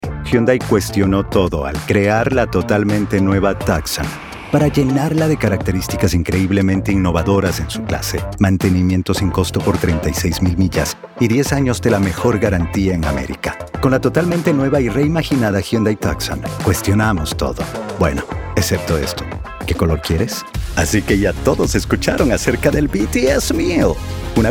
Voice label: VO Commercial USA